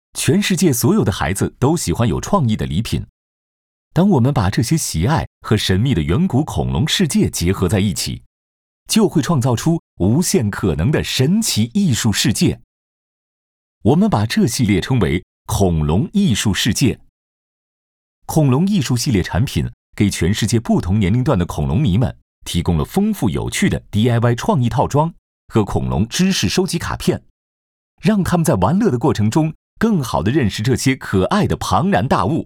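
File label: Chinese, male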